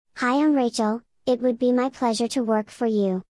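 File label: Kid, voiceover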